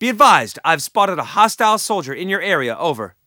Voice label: spot; bf3